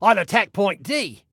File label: ATTACK, Male